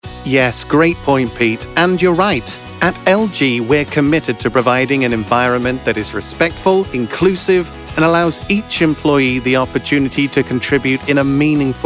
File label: HR, british elearning voiceover